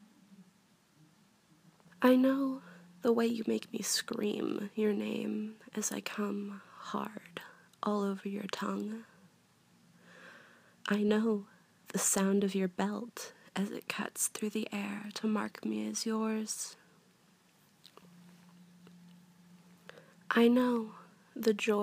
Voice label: F4M, petplay, erotic poetry, oral, pain play; GWA Good Little Kitten